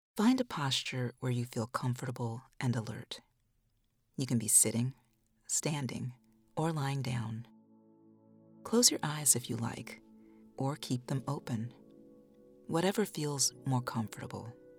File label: Meditation